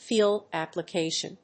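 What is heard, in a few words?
"field application", English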